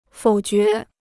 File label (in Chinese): "否决", Chinese